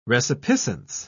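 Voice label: "resipiscence", British English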